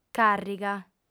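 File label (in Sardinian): "càrriga", female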